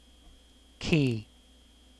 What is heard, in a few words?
"key", en; syllab; kdeedu; klettres